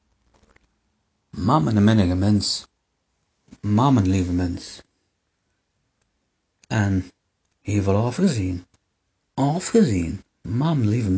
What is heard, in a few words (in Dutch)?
ma menne lieve mins, dialect